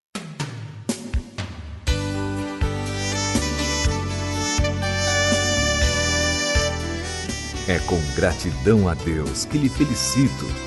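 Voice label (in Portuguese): Evangélica Formatura, male, telemensagens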